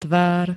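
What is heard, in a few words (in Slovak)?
"tvár", Slovak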